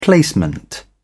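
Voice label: "placement", British English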